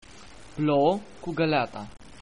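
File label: rain, Romanian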